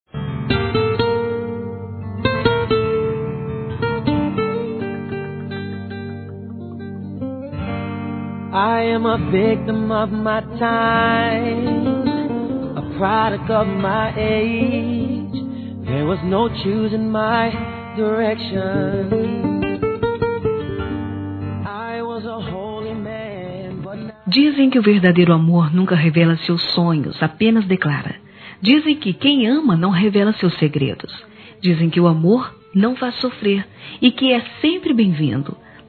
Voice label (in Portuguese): Te Gosto Muito Feminino; Telemensagens; Românticas